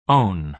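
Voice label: "own", American English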